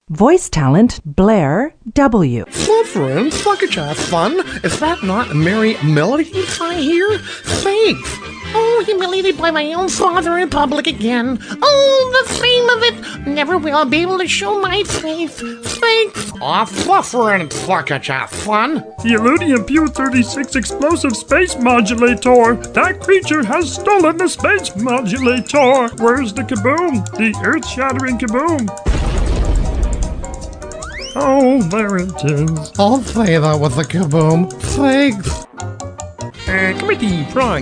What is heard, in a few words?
Voiceover Cartoon